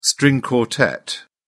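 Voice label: "string quartet", British English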